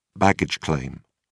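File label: "baggage claim", British English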